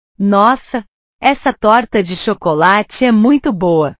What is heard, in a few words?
"nossa", portugues